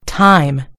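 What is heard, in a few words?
"time", British English; gcompris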